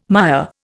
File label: "mire", Indian